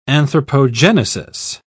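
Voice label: "anthropogenesis", English, British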